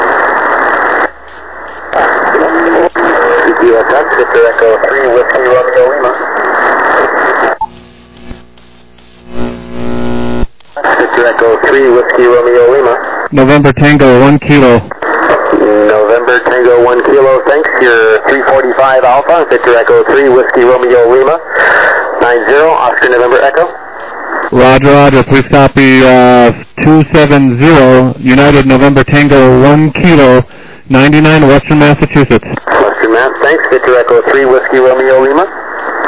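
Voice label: lower sideband 7MHz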